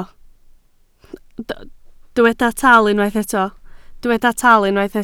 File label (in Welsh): "tal", Welsh